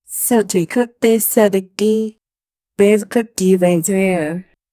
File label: female voice; young satoshi